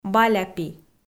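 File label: "баляпи", Komi, female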